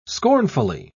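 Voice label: "scornfully", British English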